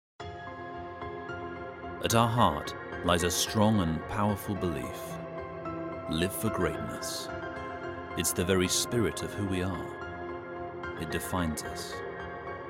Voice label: English Voiceover warm calm

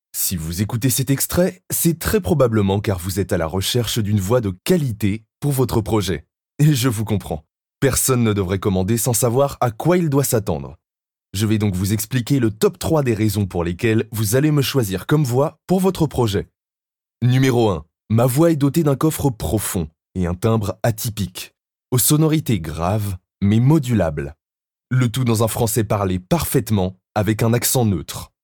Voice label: French Voice Over

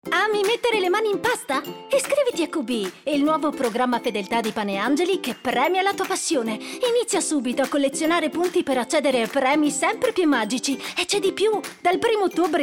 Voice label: Italian Voiceover Animatic short ads

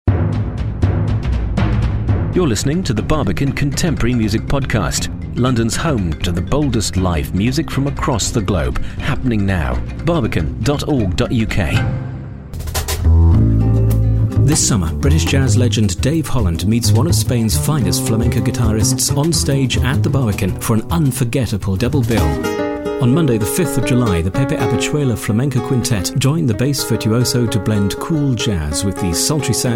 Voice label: english voice over corporate branding

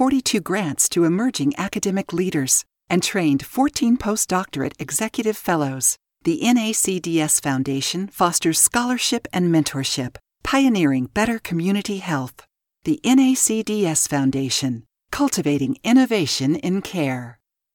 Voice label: English Voice Over

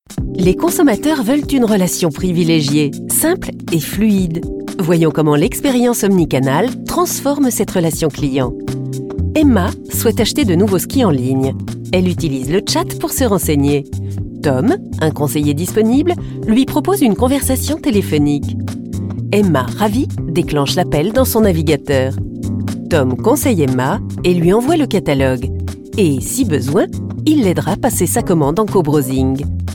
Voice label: French voiceover energetically paced

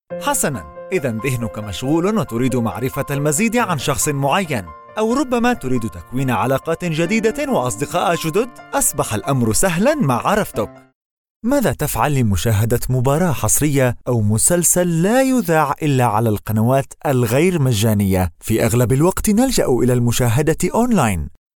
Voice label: Arabic Voiceover

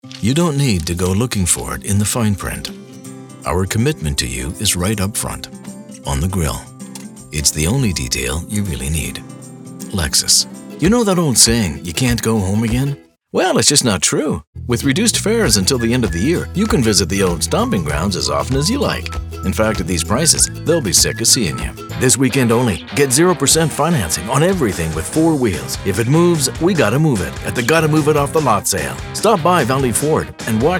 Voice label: Commercial

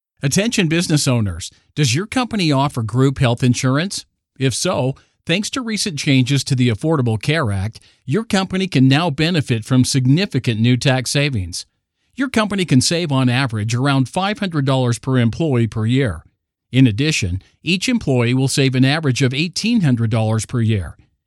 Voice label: American English Voiceover

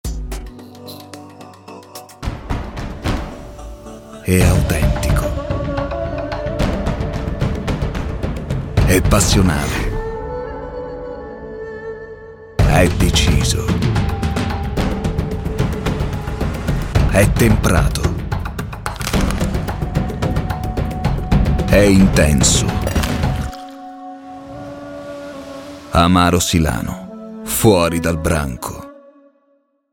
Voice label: short strong paced advertising voiceover

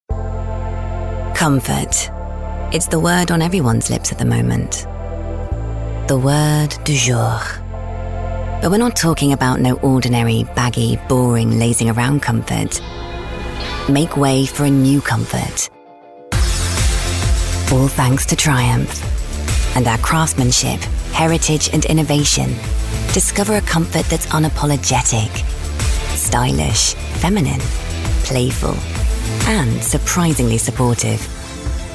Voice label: English Voice Over

